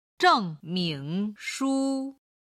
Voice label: "zhengmingshu", Chinese

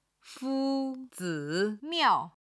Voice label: "fu1 zi3 miao4", Chinese

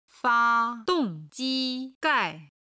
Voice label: "fa1 dong4 ji1 gai4", chinese

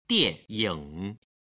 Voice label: "dianying", Chinese

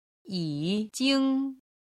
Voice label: "yi3jing1", chinese

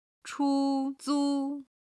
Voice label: "chu1zu1", Chinese

